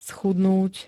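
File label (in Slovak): "schudnúť", Slovak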